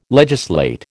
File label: "legislate", American